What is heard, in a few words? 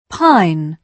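"pine", British English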